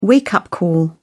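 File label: "wake-up call", British English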